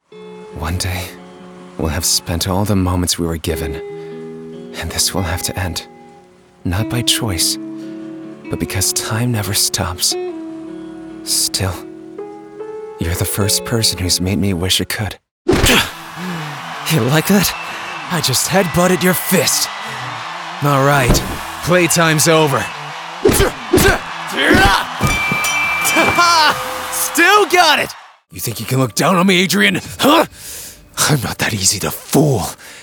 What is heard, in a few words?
character